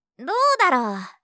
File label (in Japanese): "どうだろう？", 相づち・反応系【ネガティブ】; ASMR